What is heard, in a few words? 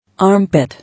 "Armpit", English